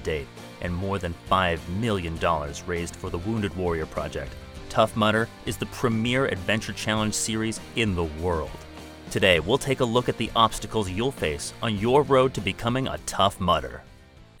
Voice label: Narration with music

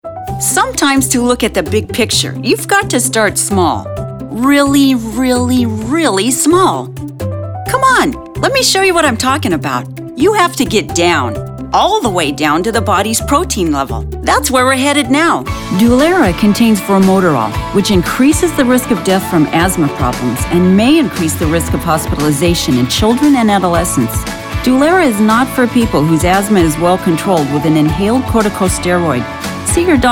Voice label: Medical Narration

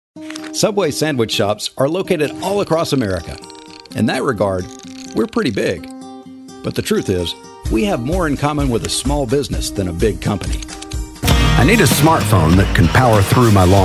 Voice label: Commercial VO

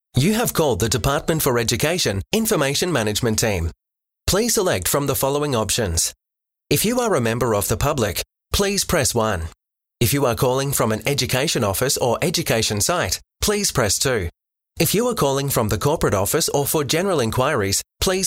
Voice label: IVR; Dept Education

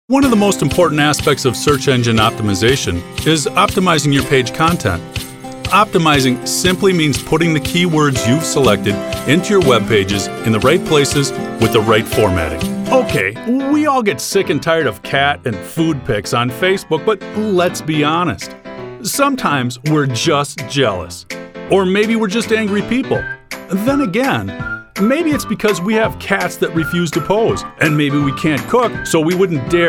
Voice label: narration

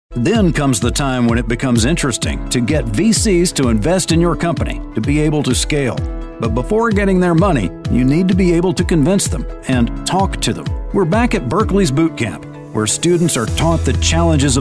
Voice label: Video Narration Documentary

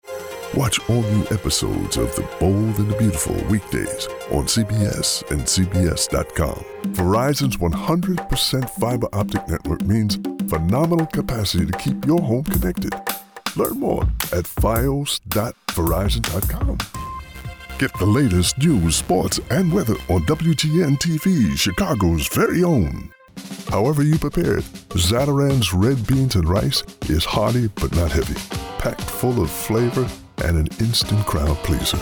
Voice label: Imaging

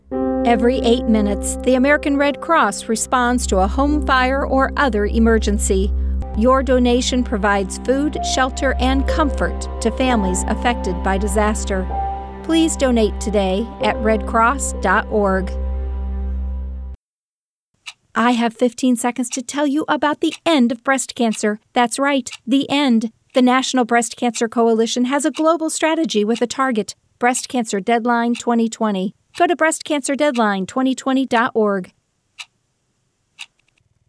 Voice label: PSA Voices

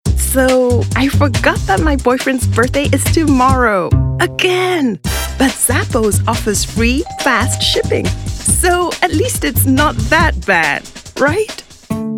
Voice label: commercial

